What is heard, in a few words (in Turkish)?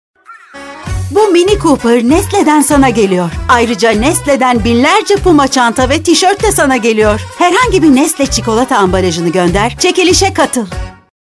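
reklam, Turkish; nestle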